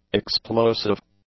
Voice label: "explosive", English; adjectives

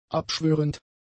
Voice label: "abschwörend", German; adjectives

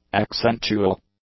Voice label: "accentual", english; adjectives

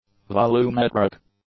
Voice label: "volumetric", English; adjectives

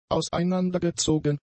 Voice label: "auseinandergezogen", German; adjectives

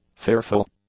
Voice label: "fearful", english; adjectives

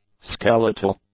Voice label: "skeletal", English; adjectives

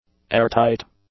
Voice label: "airtight", English; adjectives

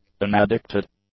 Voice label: "unaddicted", English; adjectives

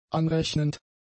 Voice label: "anrechnend", German; adjectives

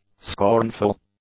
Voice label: "scornful", English; adjectives

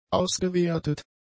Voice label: "ausgewertet", German; adjectives

